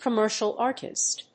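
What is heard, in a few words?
"commercial artist", English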